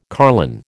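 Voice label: "carline", American English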